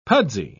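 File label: "pudsy", British English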